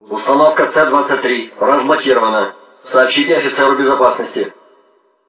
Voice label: system message; STALZONE; customnpcs; stalker